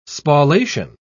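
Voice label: "spallation", English, British English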